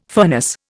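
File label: "furnace", Indian English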